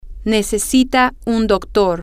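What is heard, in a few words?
he she needs a doctor, spanish, female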